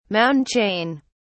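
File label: "mountain chain", British English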